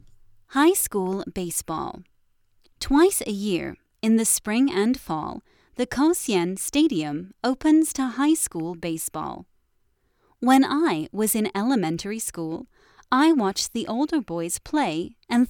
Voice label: koshien normal speed